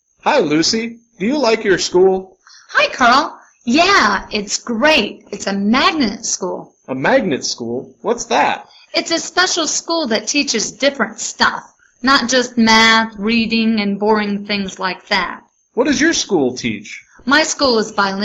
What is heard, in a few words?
Dialog